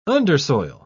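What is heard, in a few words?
"undersoil", English, British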